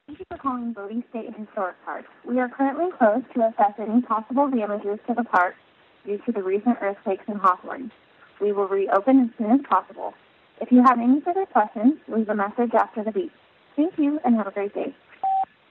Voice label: Answering Machine